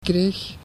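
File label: "kreeg", Dutch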